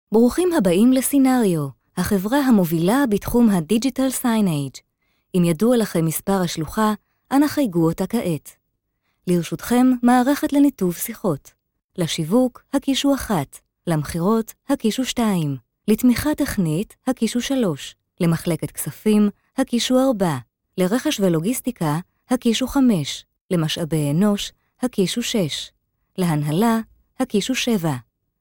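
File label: Hebrew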